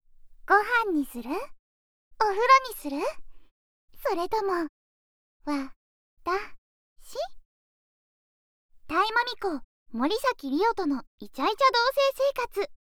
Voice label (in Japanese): タイトルコール, ASMR